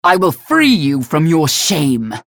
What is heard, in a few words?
chatter positive; galena; unholy paladin; frag